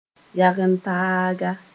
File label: "yagen-taaga", Moore